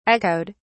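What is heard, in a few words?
"echoed", British English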